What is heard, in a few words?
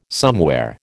"somewhere", American